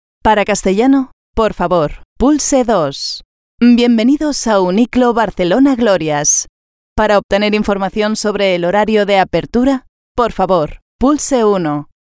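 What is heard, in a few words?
Spanish, female, IVR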